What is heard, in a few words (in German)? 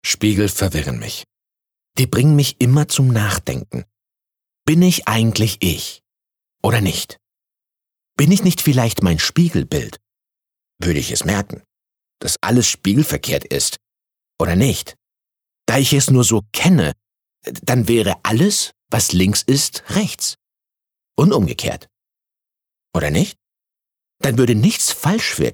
Innerer Monolog